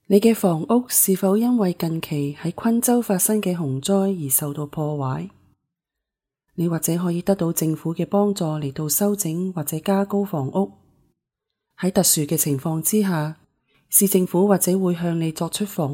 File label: tradtional chinese